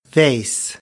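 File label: "vase", American, English; AmericanBritish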